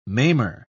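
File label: "maimer", British English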